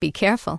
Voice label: Human Female Official NPC Farewell